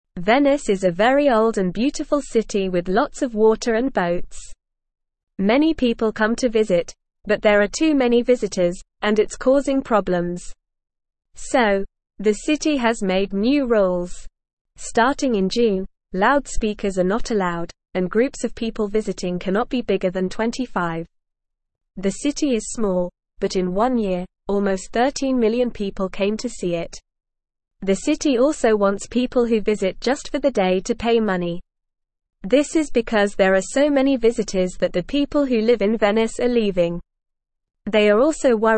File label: Beginner NORMAL Reading, English